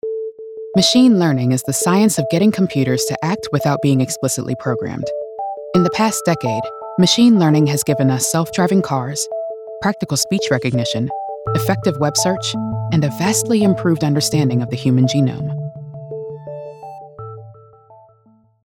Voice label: Tech Scientific Introspective Expert Smart Machine Learning AI Corporate Narration